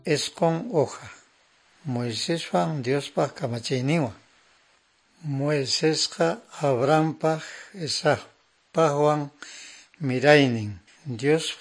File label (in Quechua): good news quechua norte de bolivia apolo